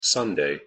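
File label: "sunday", English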